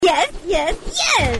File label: natural, baccarat